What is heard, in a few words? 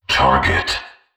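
"target", synthesized voice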